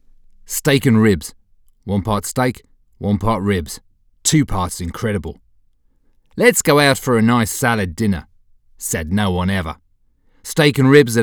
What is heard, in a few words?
Australian; Outback steakhouse